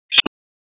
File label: Police Northwest District Tac 1; Raleigh; wake; p25